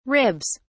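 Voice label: "ribs", British English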